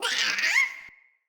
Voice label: Sprout Vocal Surprised; Friendly; Sproutfolk; Fey Hub Sprout

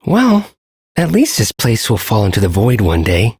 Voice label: Ambient Sedentary, en; Duviri

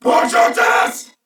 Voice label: Reload, Corpus Venus Male; Warframe; CorpusVenusMaleA

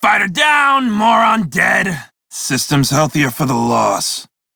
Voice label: Crew Gun Kill Fighter, Male; Dialog; en; Warframe; Railjack Crew; Red Veil

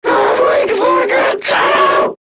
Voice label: Attack Grineer Manic; Battle Barks

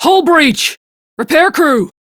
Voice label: Crew See Breach, Steel Meridian Female; Dialog; en; Warframe; RailjackCrew

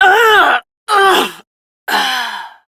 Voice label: Death, Female; Warframe; Railjack; Steel Meridian

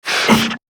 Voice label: Struggle, Battle Barks; Warframe; Grineer Manic

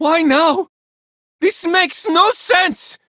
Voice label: Villagers Male, Cetus Invasion

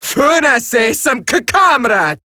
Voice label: Cover Me Eximus Grineer Female; Warframe; Battle Barks

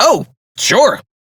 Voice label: Crew Accept, Male; Warframe; Railjack; Perrin Sequence

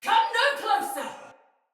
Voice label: Pre Death Sinecure Soldier Female; Battle; Barks; Dialog

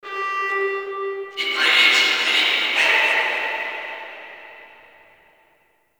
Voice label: Guild Announcer; Warframe

